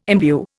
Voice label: "imbue", Indian